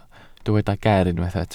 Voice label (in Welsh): "ger" Welsh